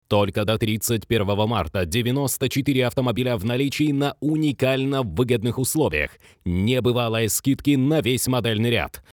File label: Commercial - Hard Selling, russian, men